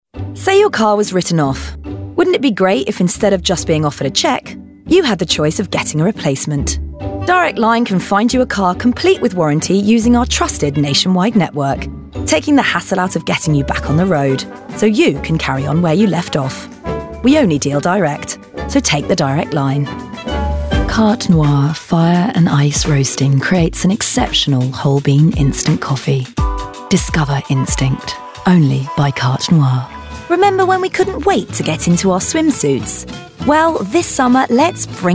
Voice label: Commercials

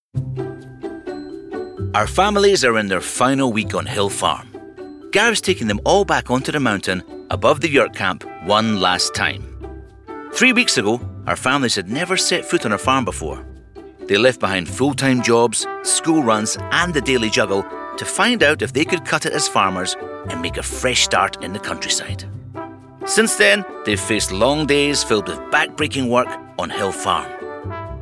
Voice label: Documentary

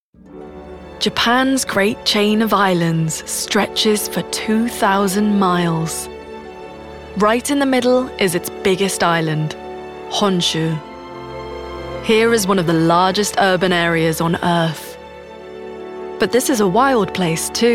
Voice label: Documentary

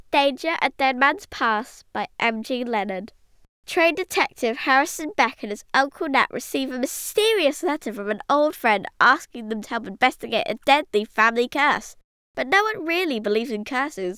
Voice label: Narrative

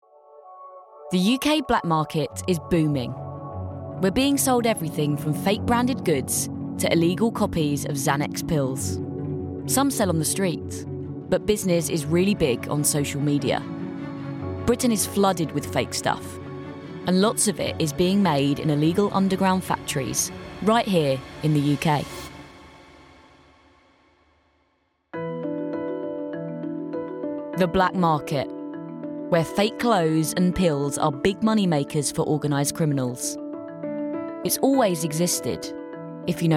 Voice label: Documentary

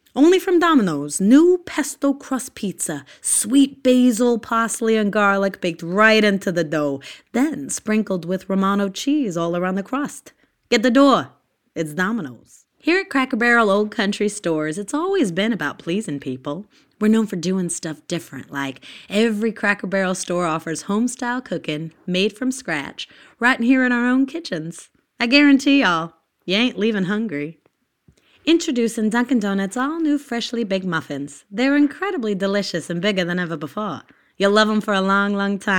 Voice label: US Accents

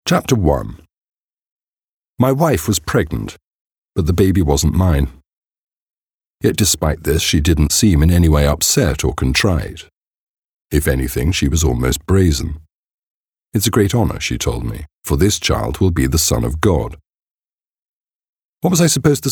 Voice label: Narration

